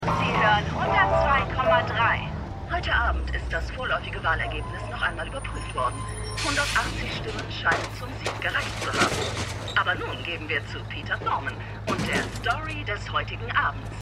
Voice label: Radiosprecherin Radio Nachrichtensprecherin; Stimmproben; Winning Time

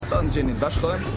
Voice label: Junger Angestellter, Stimmproben

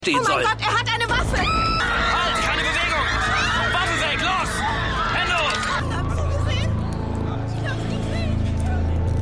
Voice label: Frau Polizist, Stimmproben

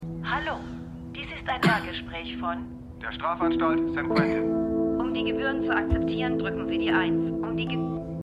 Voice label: Telefonstimmen; Truth Be Told

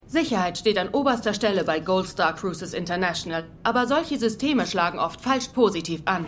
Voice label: Pressesprecherin; AlaskaDaily

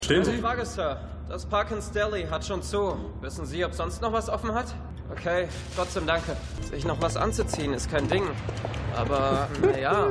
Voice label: Junger Mann; Gaslit

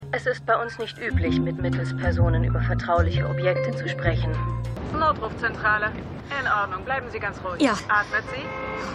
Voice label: Telefonstimme Notrufzentrale; Jessica Jones

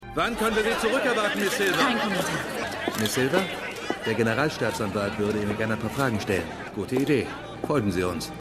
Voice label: Reporter FBI Agent; Goliath

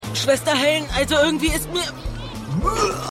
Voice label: Junge; Keine Gnade Fuer Dad

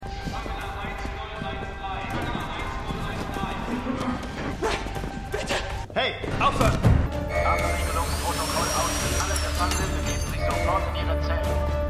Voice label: Durchsage Insasse Waerter Hey Waerter Aufhoeren Durchsage; Stimmproben; Arrow

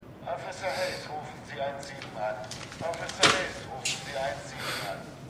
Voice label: Durchsage M; The Wire

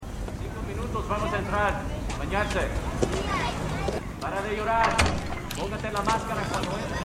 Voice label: Spanische Durchsage; Stimmproben

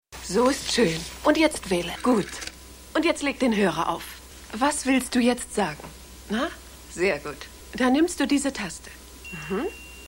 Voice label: Mitarbeiterin

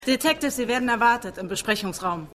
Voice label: Polizistin; Lucifer

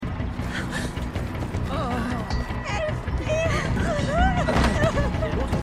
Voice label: Verletzte Frau; New Amsterdam

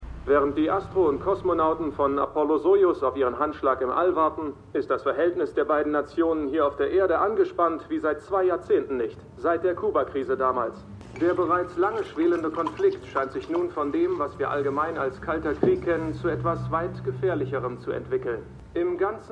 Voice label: Nachrichtensprecher Archiv; Stimmproben; For All Mankind

